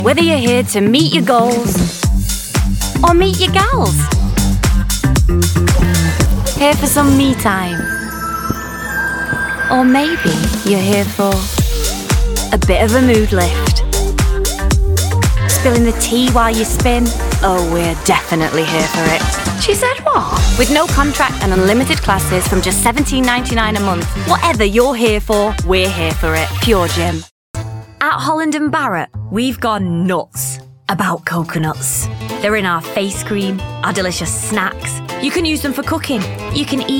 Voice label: Commercials, regional accents

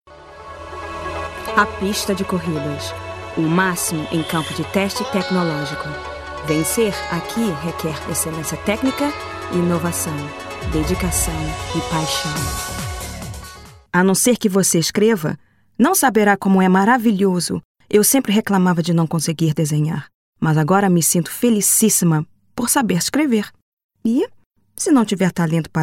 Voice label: Brazilian Portuguese

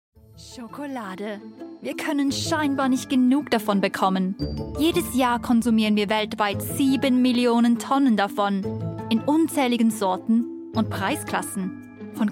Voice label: German

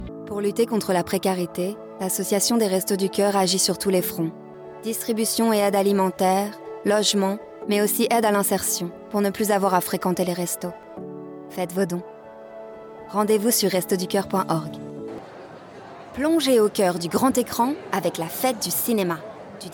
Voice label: Voice French

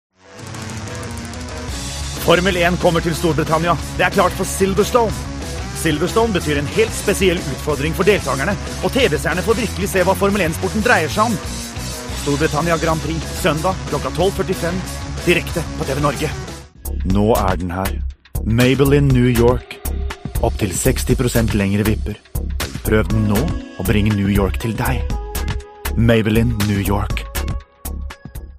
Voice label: Norwegian, Scandinavian, Male, 30s-40s, voiceover

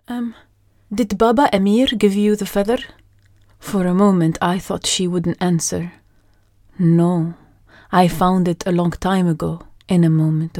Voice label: English Arabic Accent

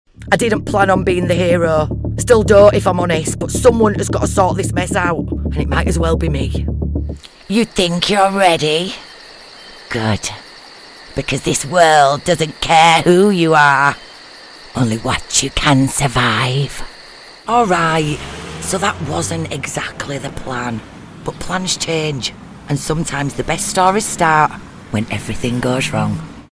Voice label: GAMING, regional accents

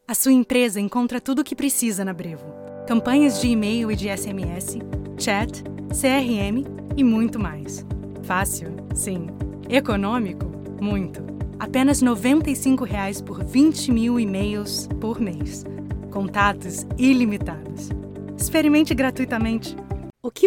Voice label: Portuguese

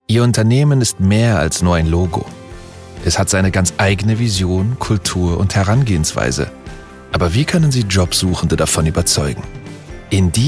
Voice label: Uplifting Commercial German

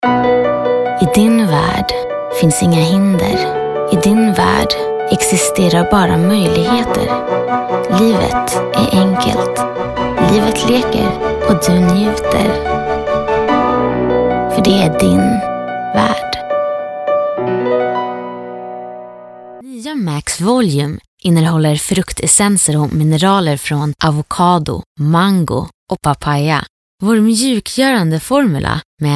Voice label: Swedish, Scandinavian, Female, 20s-30s

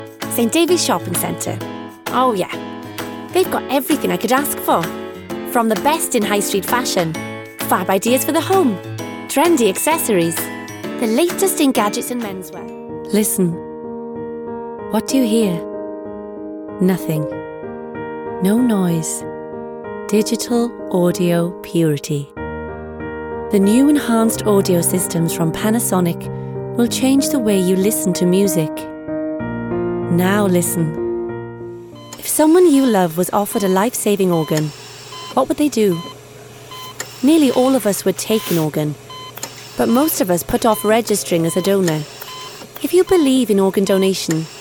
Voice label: Commercial

